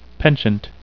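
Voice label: "penchant", English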